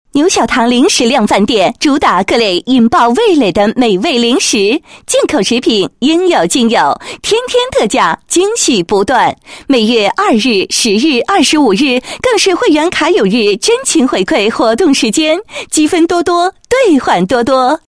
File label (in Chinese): 女 促销; 牛小糖零食量贩店宣传